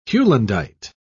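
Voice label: "heulandite", English, British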